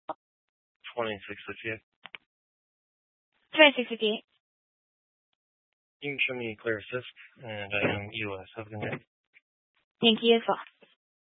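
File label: Sheriff Dispatch, scanner audio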